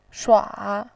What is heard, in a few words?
"shua3", voice